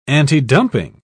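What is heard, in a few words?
"antidumping", British English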